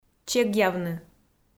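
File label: "чегъявны", Komi, female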